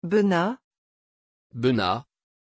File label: pronunciation Beñat, Französisch